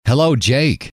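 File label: "hello", male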